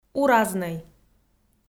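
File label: "уразнӧй", Komi, female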